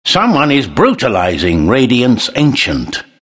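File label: announcer ancient attack radiant; Trine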